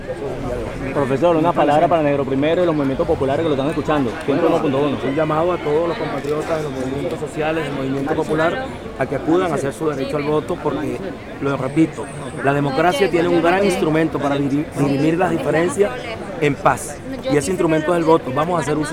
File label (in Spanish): declaracion, venezuela; aristobulo Isturiz